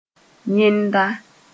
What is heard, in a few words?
"yẽnda", moore